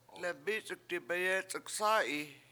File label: "lembIc71k dibayEc71k sA7i7", washo; I opened door